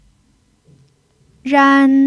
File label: "ran1", chinese pinyin